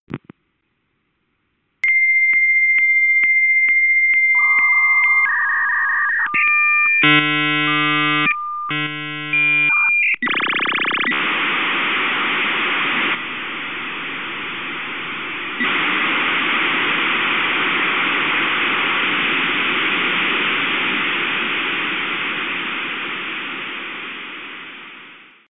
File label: okay with fadeout